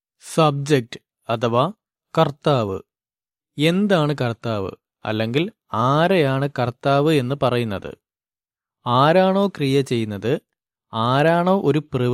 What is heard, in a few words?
noise reduce Subject Voice; kpsc daily english subject